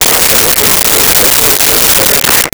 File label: Walkie Talkie Chatter; Communications